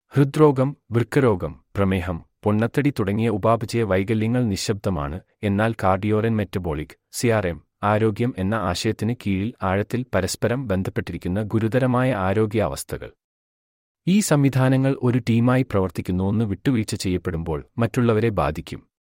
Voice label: Male Malyalam